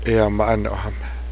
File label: drive, cheyenne language